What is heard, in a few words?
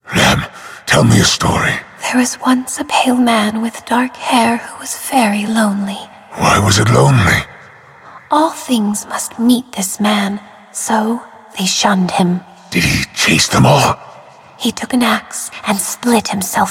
story